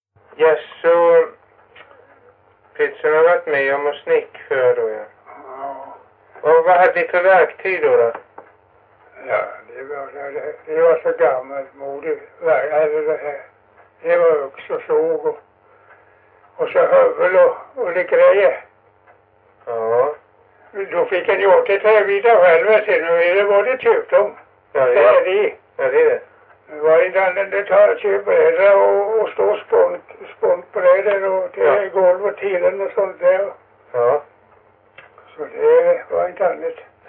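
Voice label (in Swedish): Stenåsa Öland, svenska sagor dialekter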